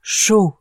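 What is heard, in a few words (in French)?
"chaud", French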